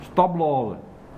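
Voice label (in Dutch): "Stapbloaze", dialect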